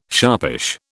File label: "sharpish", British